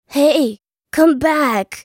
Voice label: Sad Mummy attack; Character Voices; English

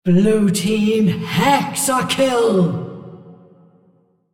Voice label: female, hexa kill

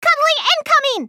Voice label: spell cast effort; Lulu

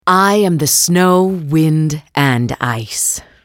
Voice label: attack, en US; Anivia

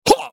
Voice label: effort, en US; Udyr

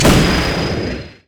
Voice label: battlecry; Xin Zhao; zhaoyun

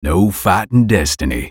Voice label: ultimate effort, en US; Twisted Fate

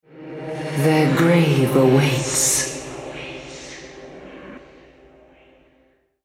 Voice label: Altar Capture, Female

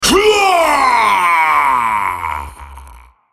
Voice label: dying, en US; BloodKingVladimir

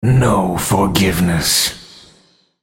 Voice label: attack, en US; Varus

